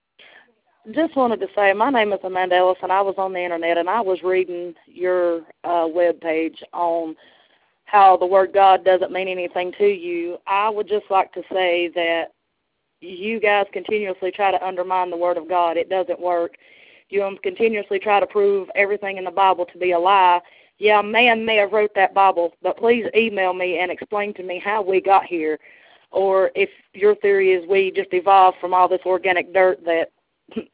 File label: voicemail; voicemail about bible page